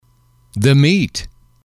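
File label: "the meat", English, male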